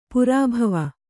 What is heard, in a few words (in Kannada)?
"ಪೆರ್ಬಾವು", Kannada